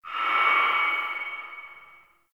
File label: Announcement End Verb; Lustratus; Interrogation